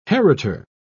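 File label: "heritor", British English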